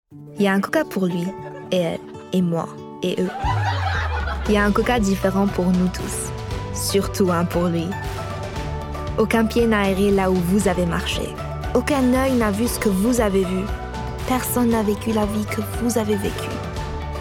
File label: Commercial French, Female, 20s-30s, voiceover; European